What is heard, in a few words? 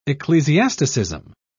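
"ecclesiasticism", British English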